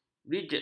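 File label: "bridged", Malay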